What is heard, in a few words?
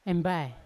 "embai", Yawa; moon; unat